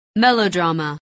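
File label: "melodrama", English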